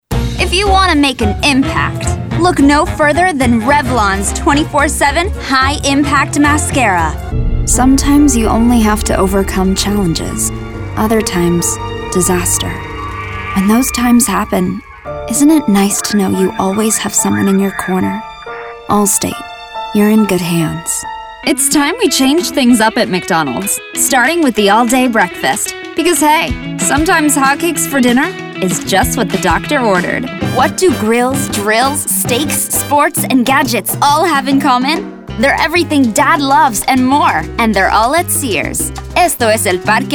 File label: commercial VO